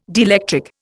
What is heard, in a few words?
"dielectric", Indian